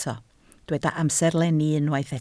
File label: "amserlenni", Welsh